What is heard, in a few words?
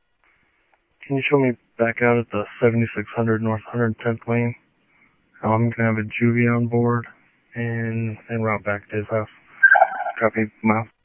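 Sheriff West County, scanner audio trunk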